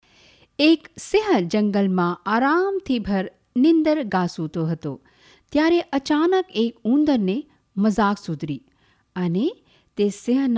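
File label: gujarati, female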